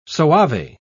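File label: "soave", British English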